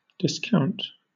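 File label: "discount", British English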